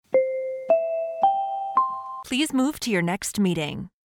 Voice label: four bright chimes please move to your next meeting